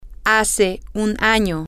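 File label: one year ago, Spanish, female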